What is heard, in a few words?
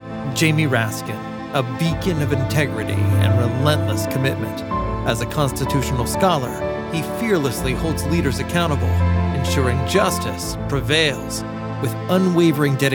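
Democrat Image Ad, male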